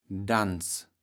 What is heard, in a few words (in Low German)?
"Danz", kaevels platt